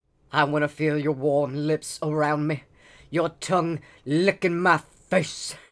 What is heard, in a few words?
Male Beggings